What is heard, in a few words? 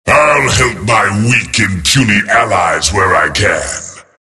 battlecry; tf; skeleton king